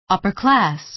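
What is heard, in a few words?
"upper class", American English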